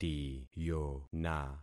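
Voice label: "tiyona", concatenated syllables; Affixing experiment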